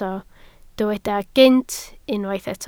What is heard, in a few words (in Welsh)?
"gynt", Welsh